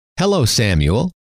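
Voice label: hello samuel, male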